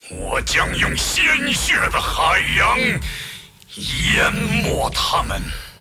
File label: move, zh; Aatrox